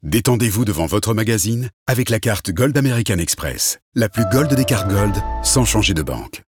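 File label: TV voice-over low soft compelling; americanexpress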